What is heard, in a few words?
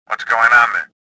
suspicious; XBOX